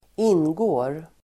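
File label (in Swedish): "ingår", Swedish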